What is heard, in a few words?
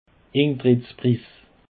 "intrettspriss", Alsace